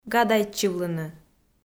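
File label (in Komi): "гадайтчывлыны", Komi-Zyrian, female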